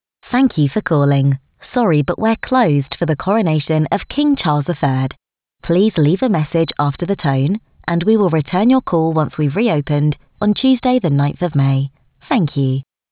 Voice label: Professional no music; 8kHz 16bit; Kings Coronation; king charles iii's coronation